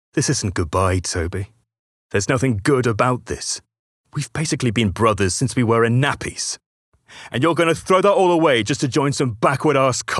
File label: Gaming Showreel; Gaming & Animation Voice Reels